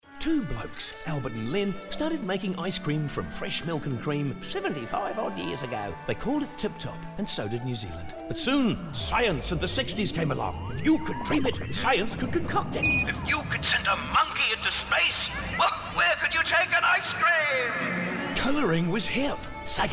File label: character